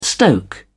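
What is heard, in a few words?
"stoke", English, British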